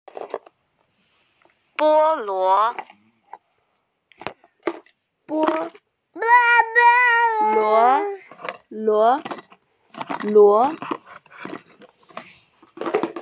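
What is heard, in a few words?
"pineapple", Mandarin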